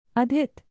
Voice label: "Adhit", hindi; indonesian boy names